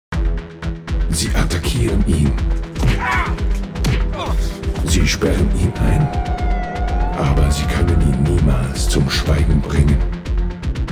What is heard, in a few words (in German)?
werbung; Dominik Dachs und d Katzepirate